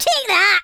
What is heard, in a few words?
dragon punch, Scotsman; speech